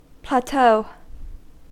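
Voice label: "plateau", American English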